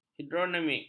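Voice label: "hidrodinamik", Malay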